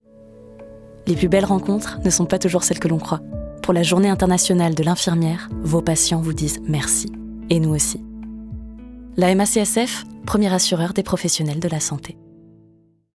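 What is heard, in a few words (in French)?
VOIX OFF - Pub, comédienne; ENGIE - Application ViGi-e (2024)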